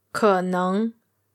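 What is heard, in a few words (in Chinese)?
"keneng", Mandarin Chinese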